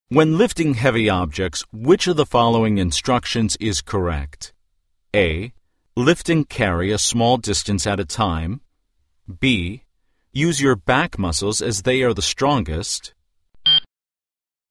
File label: eLearning Male